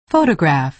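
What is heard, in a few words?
"photograph", American English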